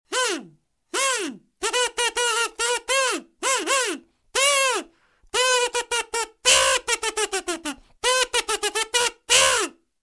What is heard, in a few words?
cartoon voice